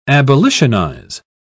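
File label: "abolitionize", British English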